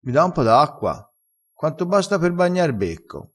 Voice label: "bagna r becco", crespinese

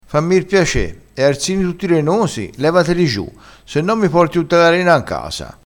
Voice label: "renoso", crespinese, vocabolario dialettale

